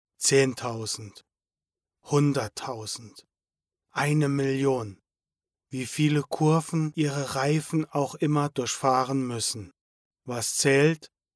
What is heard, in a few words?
male german voice over talent sales